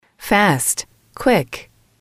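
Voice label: "fast quick", English, female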